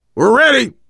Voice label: world farmhouse npc, voice, biker; Left 4 Dead 2; survivor